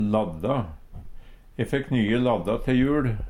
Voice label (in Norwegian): "ladda", Numedalsmål; E fekk nye ladda te jul.